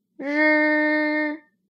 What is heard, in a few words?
"ri", Chinese